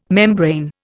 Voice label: "membrane", Indian